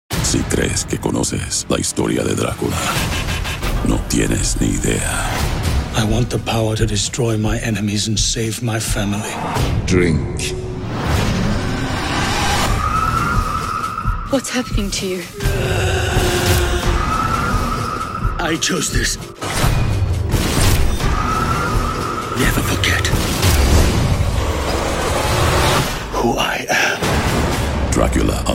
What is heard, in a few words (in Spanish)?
Spanish Spots; Dracula Untold